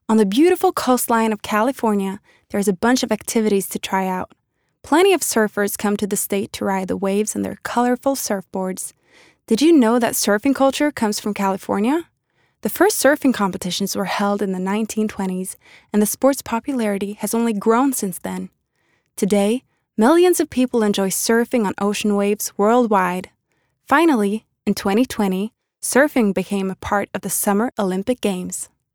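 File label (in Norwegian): no engelsk, Norwegian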